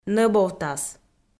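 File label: "нӧбовтас", Komi, female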